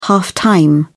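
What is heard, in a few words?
"half-time", British English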